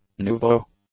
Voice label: "nouveau", english; adjectives

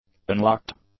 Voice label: "unlocked", English; adjectives

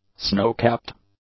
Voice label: "snowcapped", english; adjectives

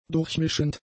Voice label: "durchmischend", german; adjectives

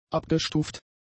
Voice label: "abgestuft", German; adjectives

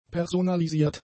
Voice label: "personalisiert", German; adjectives

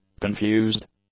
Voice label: "confused", English; adjectives

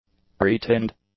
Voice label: "pre-tinned", english; adjectives

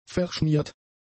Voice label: "verschmiert", German; adjectives

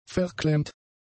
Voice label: "verklemmt", German; adjectives

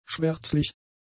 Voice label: "schwärzlich", German; adjectives

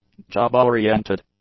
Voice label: "job-oriented", english; adjectives

